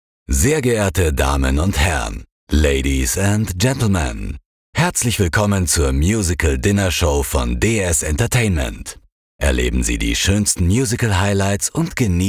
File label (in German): Veranstaltung Intro freundlich cool